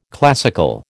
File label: "classical", American